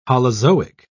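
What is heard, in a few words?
"holozoic", British English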